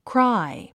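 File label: "cry", English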